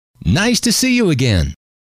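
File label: nice to see you again, male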